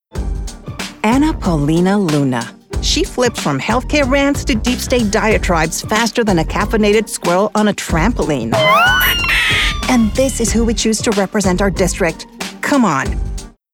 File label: political voice over humor english